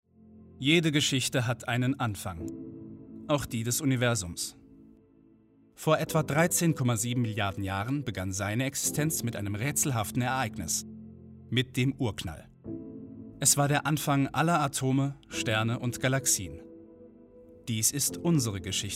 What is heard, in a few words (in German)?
Doku sachlich mittelhell neutral